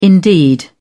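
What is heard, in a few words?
"indeed", British English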